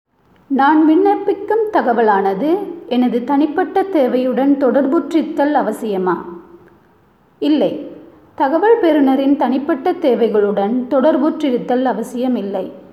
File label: Tamil